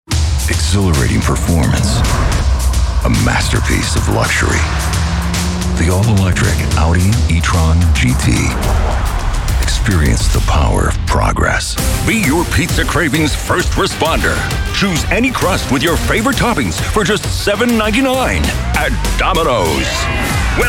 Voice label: Commercials